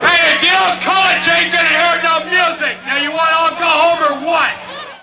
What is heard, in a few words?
LoFi Phrases, LoFi Vocals; Vengeance Samples